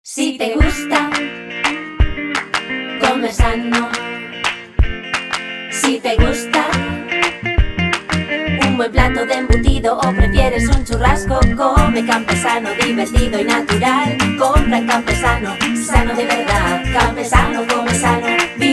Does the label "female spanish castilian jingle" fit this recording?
Yes